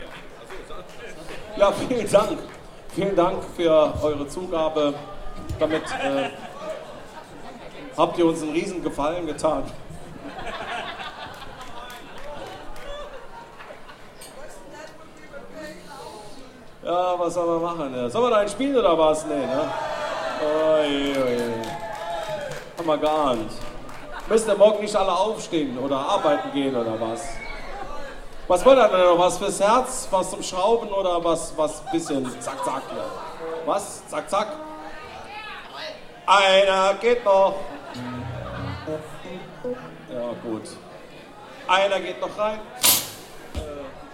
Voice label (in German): Ansage, Konzert; Boemundhof, Saarburg